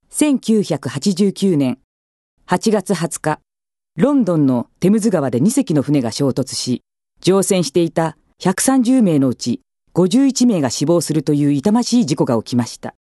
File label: female voice; ナレーション